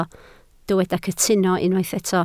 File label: "cytuno", Welsh